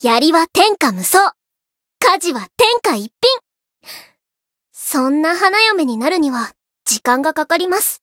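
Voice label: Voice story, Japanese; komachi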